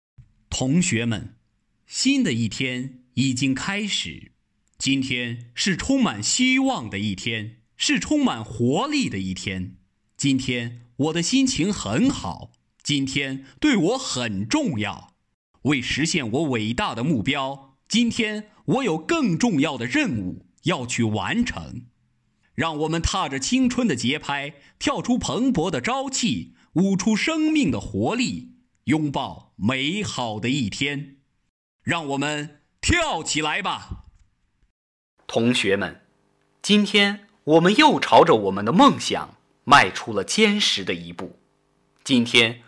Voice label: 样音大气磅礴教育励志

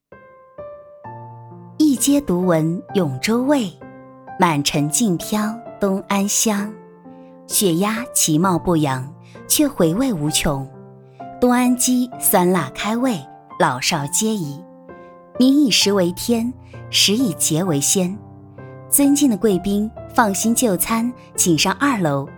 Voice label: 餐饮广告; 永州血鸭馆

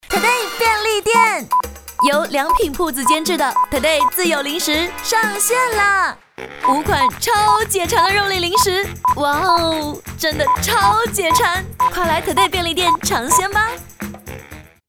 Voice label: 广告搞笑夸张

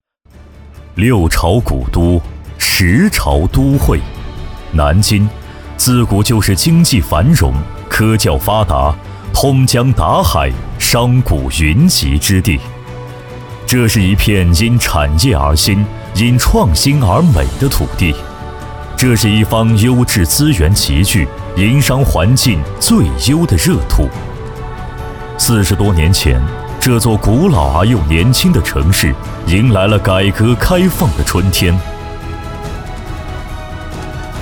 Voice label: 专题大气浑厚

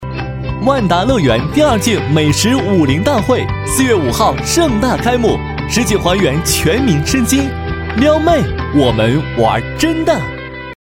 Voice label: 万达乐园广告

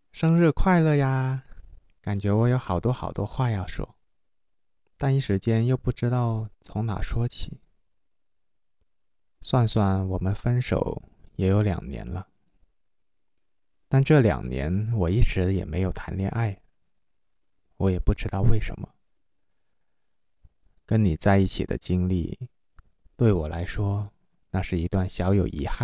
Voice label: 电影解说配音

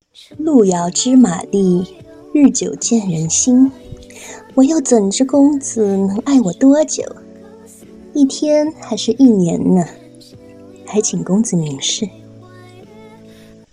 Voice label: 少御音

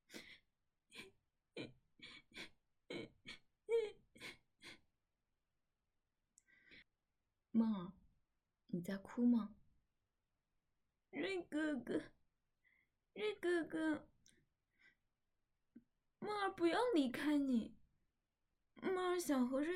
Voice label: 正太音萝莉音

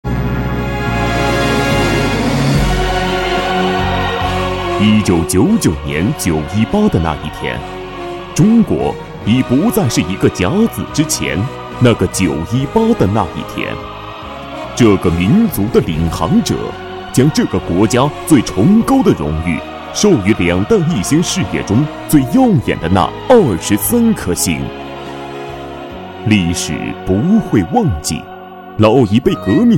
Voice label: 专题 演讲稿（大气稳重）

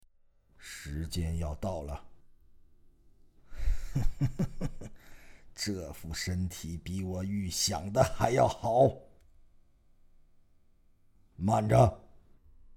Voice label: 老乞丐男播; 武道至尊

